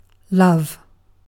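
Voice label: "love", British English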